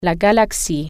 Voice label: galaxy, french